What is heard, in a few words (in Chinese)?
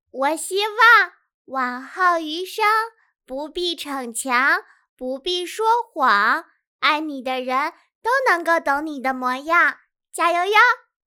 萝莉音